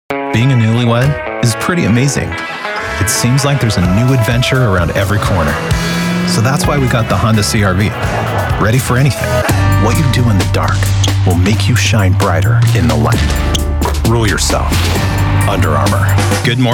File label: commercials, men